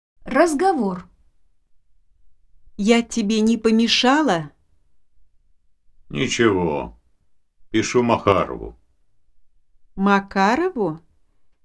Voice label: Conversation; 2006 RusseRevolution